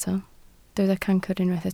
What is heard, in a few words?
"cancr", Welsh